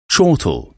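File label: "chortle", English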